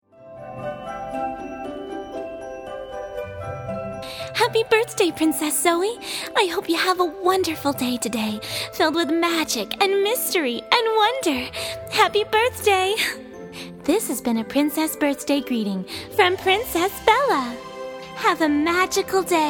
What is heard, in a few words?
birthday, Princess Birthday Wishes, princess voice message; animated princess messages